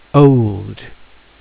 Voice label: old, phonics